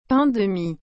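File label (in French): "pain de mie", French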